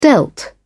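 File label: "dealt", British English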